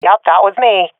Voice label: i killed enemy, swat fem; Counter Strike Model Pack; CS:GO